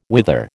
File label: "whither", American